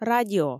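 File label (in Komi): "радио", Komi, female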